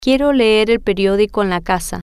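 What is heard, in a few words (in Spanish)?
Quiero Leer Periodico En Casa, spanish, female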